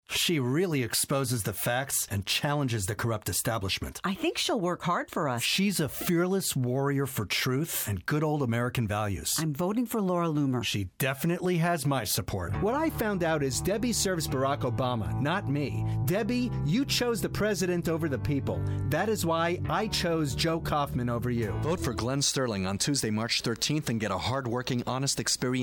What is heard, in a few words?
Political Ads, VO